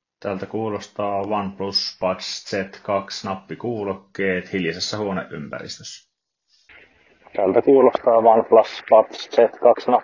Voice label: OnePlus Buds Z2 mikkitesti; kuulokkeet

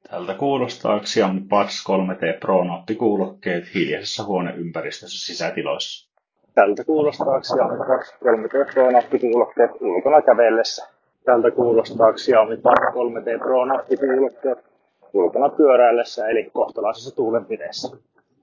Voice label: Xiaomi Buds 3T Pro mikkiesimerkki

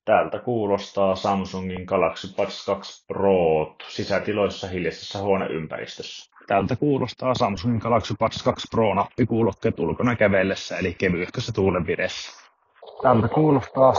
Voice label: Samsung Galaxy Buds2 Pro mikkiesimerkki

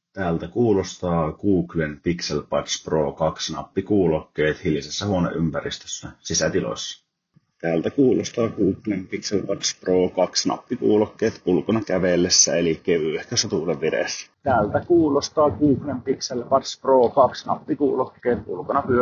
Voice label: Pixel Buds Pro 2 mikrofonit; Google; nappikuulokkeet

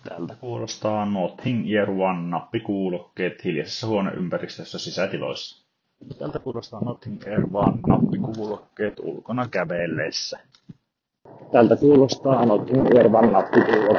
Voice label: Nothing Ear mikrofoniesimerkki

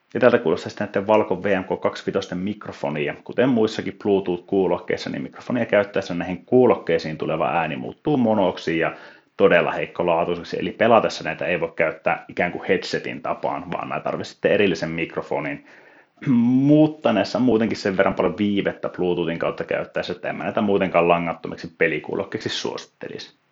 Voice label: mikrofoniesimerkki; Valco VMK25

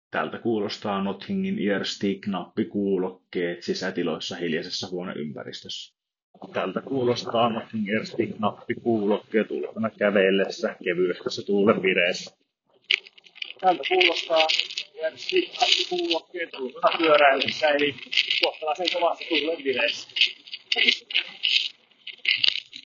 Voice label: mikrofoniesimerkit; Nothing Ear stick

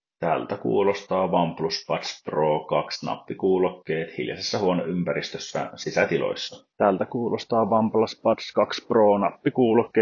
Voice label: OnePlus Buds Pro 2 mikkiesimerkit

